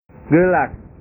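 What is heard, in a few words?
"gelas", Indonesian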